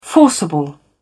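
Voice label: "forcible", en, uk British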